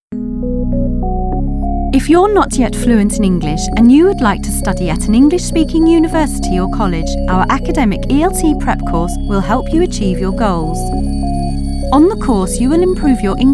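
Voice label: English, female, Training; corporate voice over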